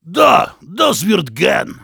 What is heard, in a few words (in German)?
positive vocalization